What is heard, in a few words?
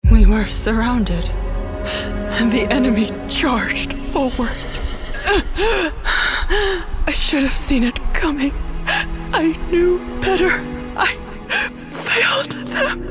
Character Demo